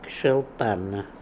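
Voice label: "Ksheltarna", pronunciations; Fourth Way; Beelzebub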